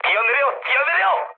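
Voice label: Korean bailout mood med; speech; War Thunder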